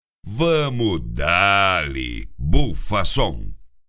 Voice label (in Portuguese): vinhetas locutor; Bufa Som